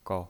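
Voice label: "gou", Mandarin Chinese, neutral tone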